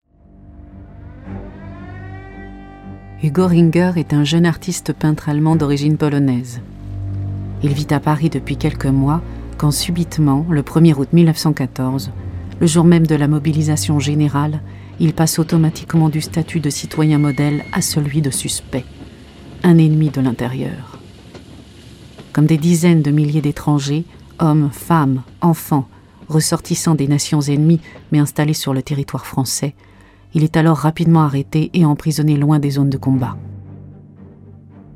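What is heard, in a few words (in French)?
Docu, voix off; documentaire; Enregistrements dans la cabine speak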